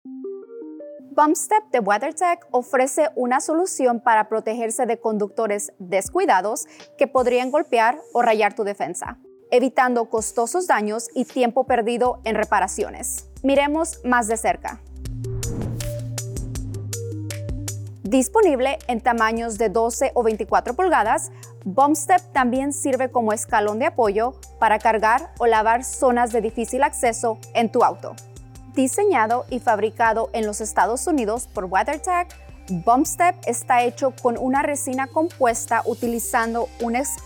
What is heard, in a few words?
Spanish; Bump Step